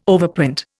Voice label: "overprint", Indian

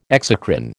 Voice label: "exocrine", American

